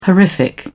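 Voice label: "horrific", British English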